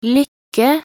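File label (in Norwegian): "lykke", Norwegian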